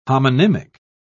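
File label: "homonymic", British English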